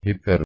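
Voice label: "h1uper", Proto-Indo-European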